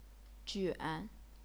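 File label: "juan", Chinese, third tone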